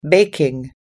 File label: "baking", us english